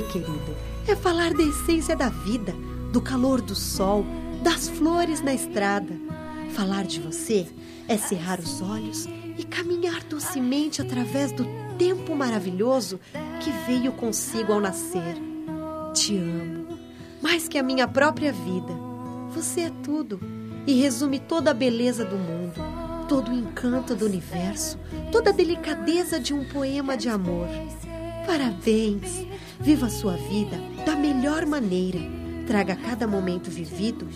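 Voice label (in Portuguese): Aniversário de Neto, female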